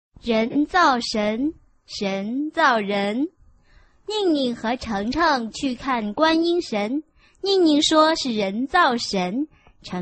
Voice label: chinese tongue twister